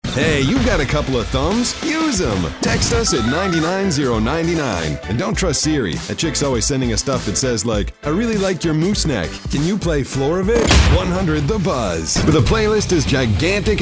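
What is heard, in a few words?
radio men adult contemporary new rock; radio imaging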